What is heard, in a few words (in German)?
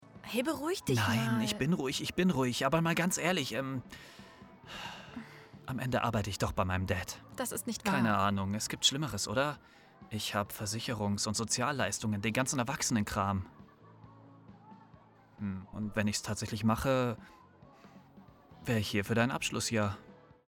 Teenage Drama weich verliebt charmant